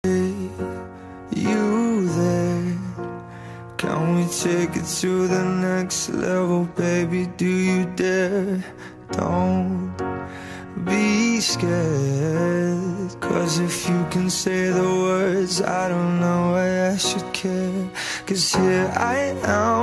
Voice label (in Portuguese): inicio masx, suave voz masculina; Dia dos Namorados